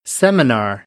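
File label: "seminar", American English; AmericanBritish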